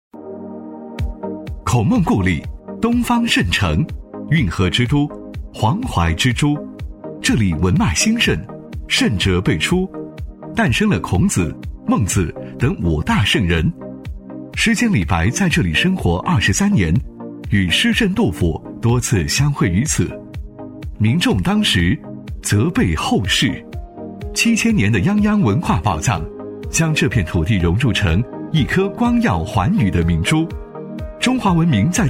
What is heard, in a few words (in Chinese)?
男 城市宣传片 朝气 活力; 男181-时尚科技 年轻时尚; 孔孟故里